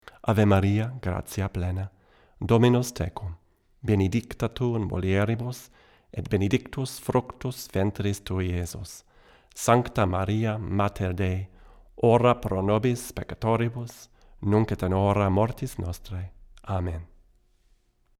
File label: Latin, Neumann M269